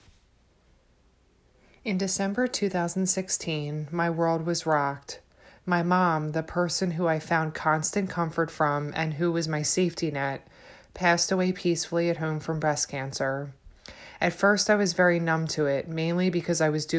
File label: Voice Over